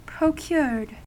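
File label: "procured", US English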